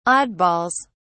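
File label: "oddballs", English, British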